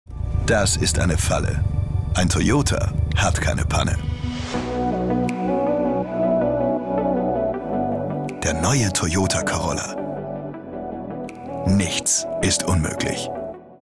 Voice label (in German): Mann OFF